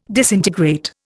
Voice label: "disintegrate", Indian

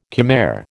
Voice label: "Khmer", American

